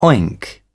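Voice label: "oink", British English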